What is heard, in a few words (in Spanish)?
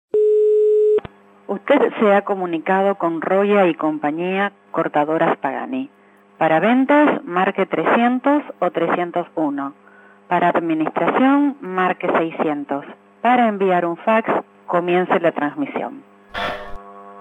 mensajes telefonicos